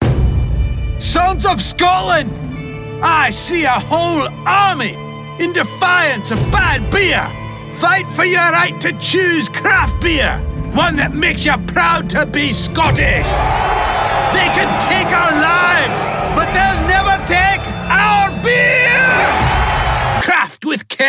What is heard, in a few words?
Scottish; Rivertown Brewing